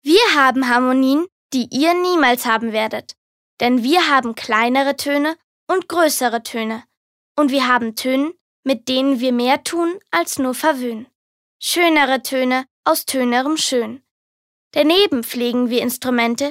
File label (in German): Schauspiel Neutral